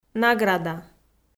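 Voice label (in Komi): "награда", Komi, female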